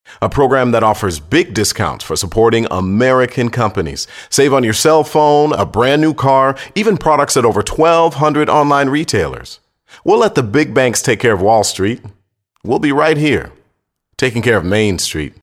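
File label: English US, male, commercial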